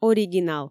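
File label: "оригинал", Komi, female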